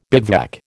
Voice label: "bivouac", American